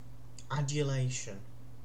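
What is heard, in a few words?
"adulation", British English; pronunciations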